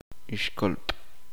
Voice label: uspanteko agallas intestinos

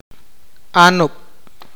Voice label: hermana, awakateko

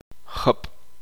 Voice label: uspanteko lluvia

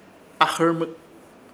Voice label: "AJƗRMYK" celoso, Ishir Chamacoco

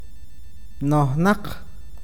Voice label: lleno, awakateko

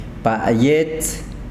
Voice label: pluma, yanesha

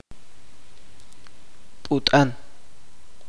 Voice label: "lleno", chuj

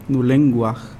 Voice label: Nawat palabras lengua; pipil

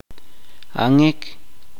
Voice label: "nosotros inclusivo", chuj

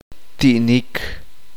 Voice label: uspanteko carne

